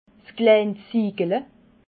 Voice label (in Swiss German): "ziegele", alsace